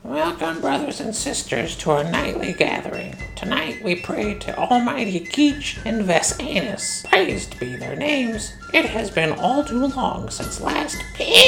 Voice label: Motivational Speech